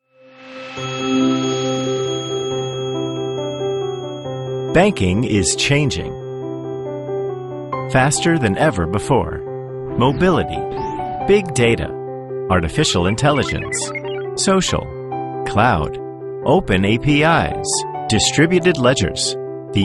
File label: narration us